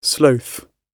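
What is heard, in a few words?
"sloth", British English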